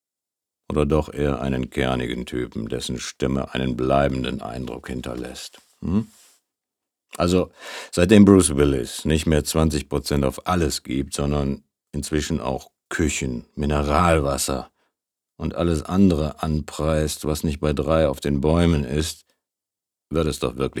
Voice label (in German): Radio Spot